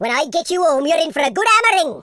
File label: traitor, Welsh; speech